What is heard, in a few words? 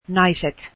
women, finnish